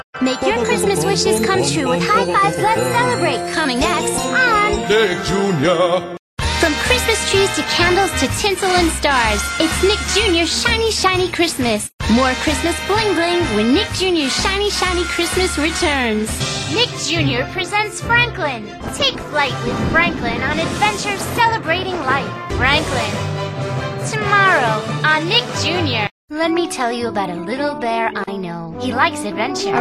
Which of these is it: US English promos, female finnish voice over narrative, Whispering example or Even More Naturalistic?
US English promos